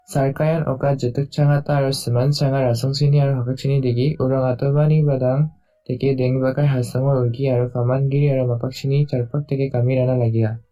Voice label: udhr rabha maitori